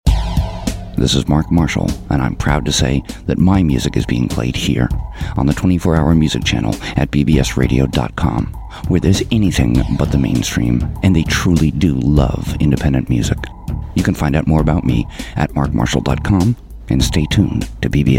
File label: bbs radio promo